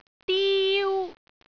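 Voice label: "diu1", Cantonese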